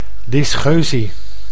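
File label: "dysgeusie", Dutch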